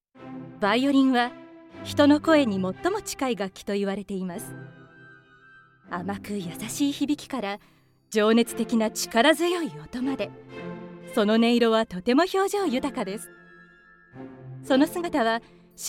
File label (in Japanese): NAサンプル